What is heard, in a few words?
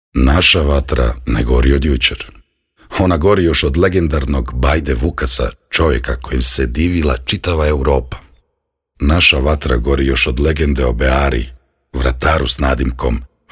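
croatian male narration, voice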